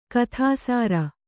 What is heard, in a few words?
"ಗೋಧಿಸರ", kannada